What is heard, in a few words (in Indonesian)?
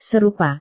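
"serupa", Indonesian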